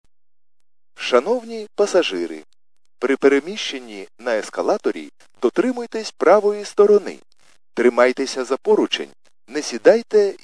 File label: khs st male, subway station